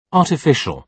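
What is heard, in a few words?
"artificial", British English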